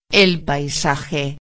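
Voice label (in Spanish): "paisaje", Spanish; ortografia; heterogenericos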